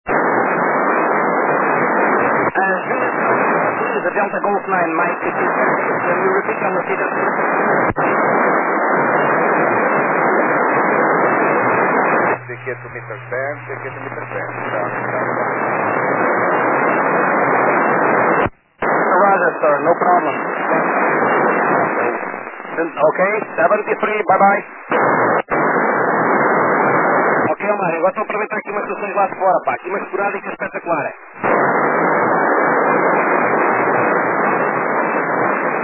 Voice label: FM